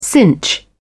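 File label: "cinch", British English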